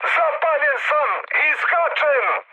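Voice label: Serbian on fire mood high, speech; War Thunder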